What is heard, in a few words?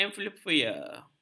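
"amplifier", Malay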